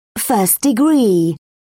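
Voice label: "first degree", British English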